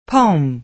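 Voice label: "poem", American English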